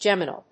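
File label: "geminal", English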